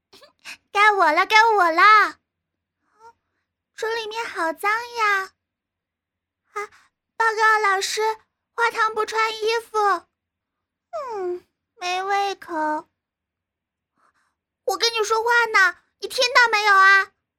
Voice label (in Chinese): 女童